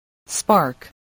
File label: "spark", English voice